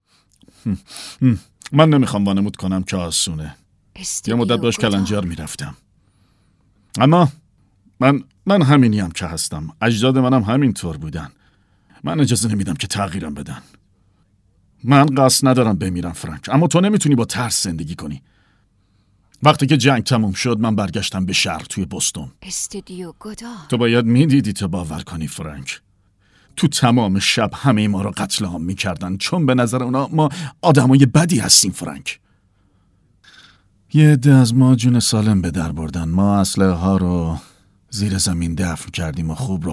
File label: dub